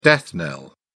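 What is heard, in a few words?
"death knell", British English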